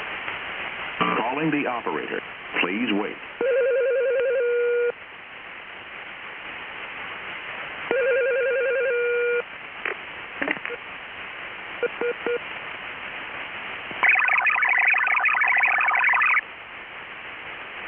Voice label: HARRIS TIU RAU MALE; HARRIS RF-3575 RAU or RF3560C TIU with male voice prompt and ring tones